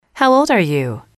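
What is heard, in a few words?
"how old are you", English, female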